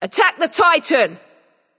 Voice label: vox attack; smite; serqet

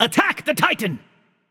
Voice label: vox attack m; smite; ganesha

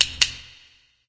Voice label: vox click; smite; camazotz

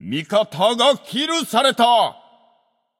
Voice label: notify slain ally, japanese; caster

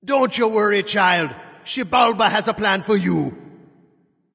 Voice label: taunt directed; scylla; smite; monkey

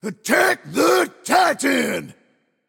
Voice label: Attack M; smite; camazotz

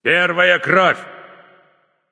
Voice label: kill streak first blood, russian; caster

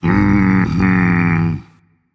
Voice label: Agree Dragon; fafnir

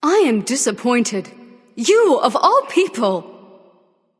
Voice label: taunt directed; aphrodite; Smite; athena

